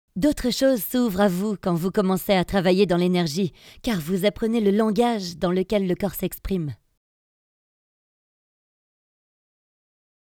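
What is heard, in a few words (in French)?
french female commercial; voice demos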